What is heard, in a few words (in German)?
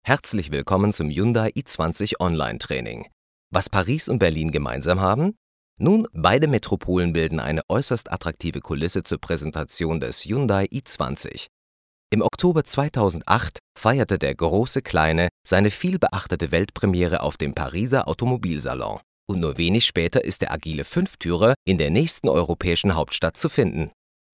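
elearning, deutsch männer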